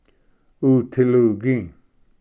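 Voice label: "utilugi", Cherokee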